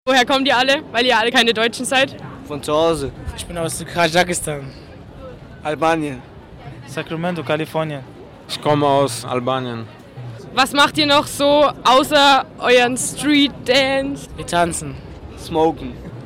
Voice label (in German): interview strassenmusik